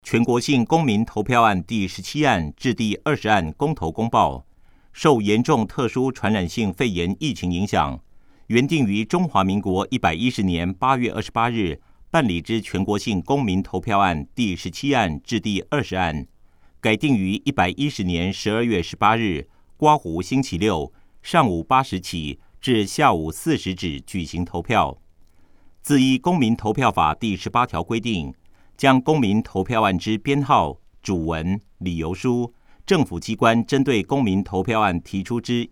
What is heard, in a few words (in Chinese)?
國語; 公報刊頭說明; 全國性公民投票; 110第17至20案; 有聲公報